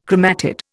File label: "chromatid", Indian